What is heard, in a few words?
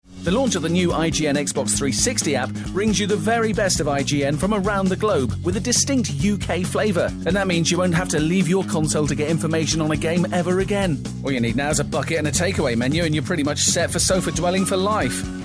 commercial